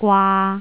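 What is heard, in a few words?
"gwaa3", Jyutping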